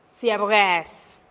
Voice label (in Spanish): "siab geez" atole blanco, teotitlan